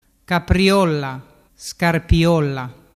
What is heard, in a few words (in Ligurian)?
"capriolla scarpiolla", dialettu